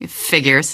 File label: answer, female; Garry's Mod; hl2